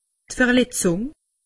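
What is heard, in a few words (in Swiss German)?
"verletzung", Alsatian; medecine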